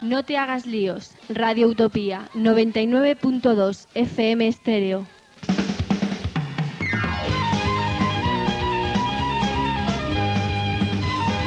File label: promo; 992sterio